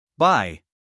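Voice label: "buy", American English, male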